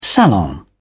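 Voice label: "salon", British English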